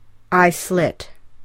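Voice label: "I slit", English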